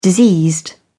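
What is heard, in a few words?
"diseased", British English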